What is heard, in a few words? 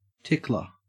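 "tickler", Australian English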